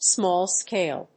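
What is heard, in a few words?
"small-scale", English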